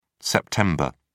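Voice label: "sept", British English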